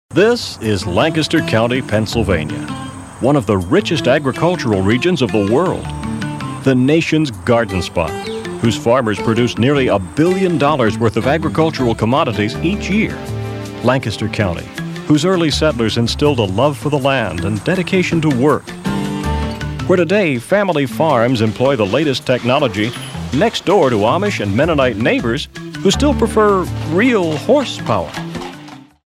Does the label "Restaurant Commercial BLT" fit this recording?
No